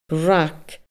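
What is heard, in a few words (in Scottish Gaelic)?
"rag", Scottish Gaelic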